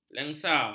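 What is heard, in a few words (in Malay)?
"lensa", Malay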